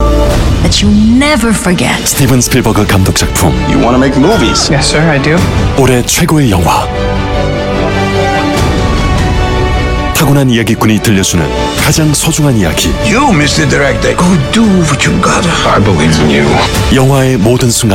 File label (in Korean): CF 부드러운 희망찬; 파벨만스